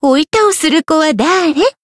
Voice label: encount, jp